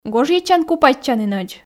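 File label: "гожйӧдчан-купайтчанінӧдз", Komi, female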